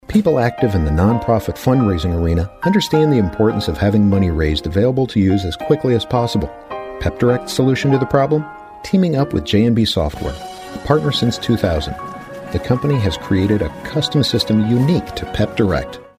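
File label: US English, Kommentar Sanft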